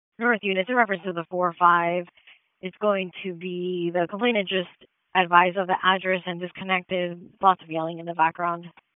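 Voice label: Raleigh Police Northeast District Dispatch; wake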